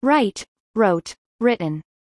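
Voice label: "write wrote written", English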